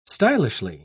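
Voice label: "stylishly", English, uk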